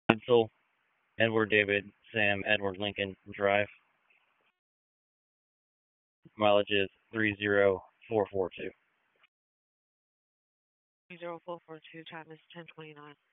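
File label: Police Dispatch, p25; Raleigh Police Southwest District Disp; wake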